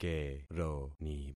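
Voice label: "keroni", concatenated syllables; Affixing experiment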